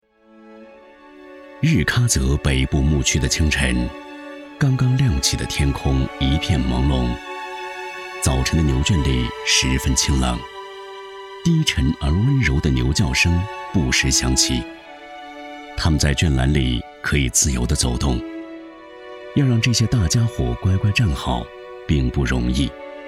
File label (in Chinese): 男97 纪录片; 藏歌